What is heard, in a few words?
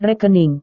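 "rekening", Indonesian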